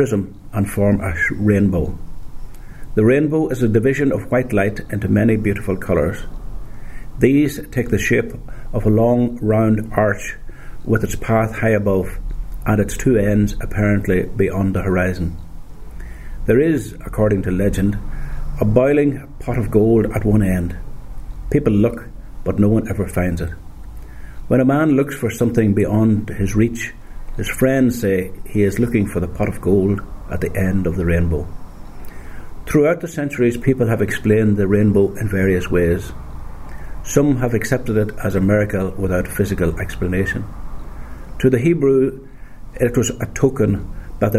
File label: passage, dialects ni